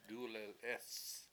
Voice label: "dUlelEEs" less, Washo

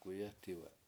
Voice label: "k7uyE7tiwe7", washo; swim downstream

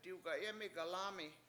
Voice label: I want to sweep floor, Washo; diwga7Emi7 galAAmi7

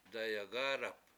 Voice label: "dayagAAdap", Washo; lay something face up

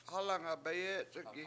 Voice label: closed pinenut, Washo; hAlanga7 bayEc71gi

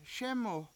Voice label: "SEmu", Washo; really

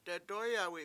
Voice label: "dedOyawi7", Washo; it$s black

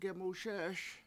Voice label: "book", Washo; gemuSE7eS

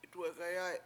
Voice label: "itwegayAy", Washo; broom

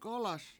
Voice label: "hurry", Washo

